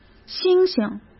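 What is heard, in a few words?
"星星", Mandarin Chinese; chinese-flashcards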